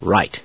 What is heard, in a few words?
"right", scientist; source engine; hl1; video games